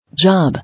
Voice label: "Job", English